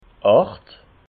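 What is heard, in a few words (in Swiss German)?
"acht", Alsatian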